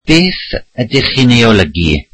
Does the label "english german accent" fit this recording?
No